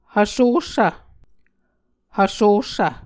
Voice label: "hvsossv", Muscogee